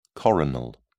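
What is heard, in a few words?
"coronal", British English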